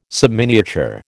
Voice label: "subminiature", American